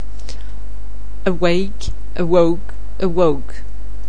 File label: "awake", anglais